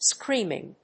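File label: "screaming", English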